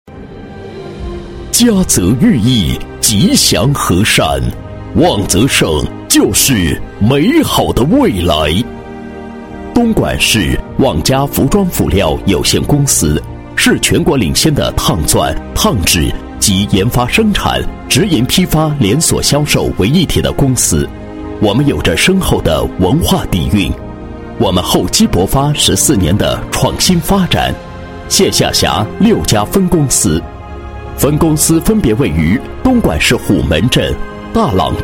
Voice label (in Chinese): 男 专题 企业宣传介绍招商 大气, 国语男声配音